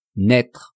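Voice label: "naitre", French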